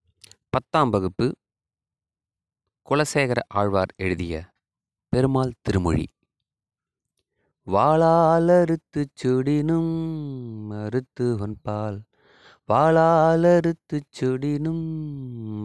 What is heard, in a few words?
tamil memory poem; namma kalvi